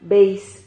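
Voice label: "beis", Spanish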